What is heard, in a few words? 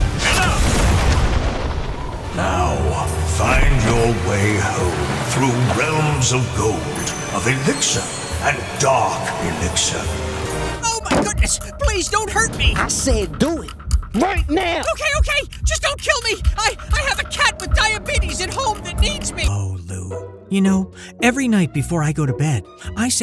Animation